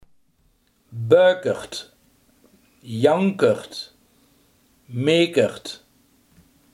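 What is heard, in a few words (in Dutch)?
huilebalk, Limburgse gesproken woordenboeken; huilebalk, bäökert, jankert, mekert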